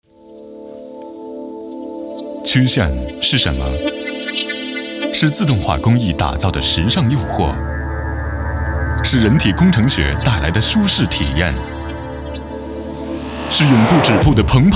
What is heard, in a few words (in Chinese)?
汽车广告; 北京现代